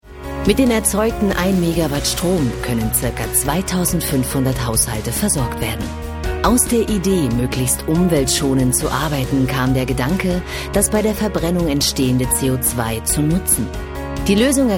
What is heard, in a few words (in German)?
Imagefilm; Holzindustrie Bralitz